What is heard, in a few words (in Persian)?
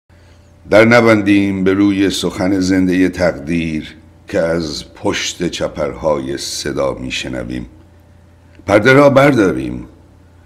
دکلمه; کار ما نیست شناسایی; gol sorkh